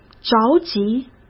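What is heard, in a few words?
"着急", Mandarin Chinese; hsk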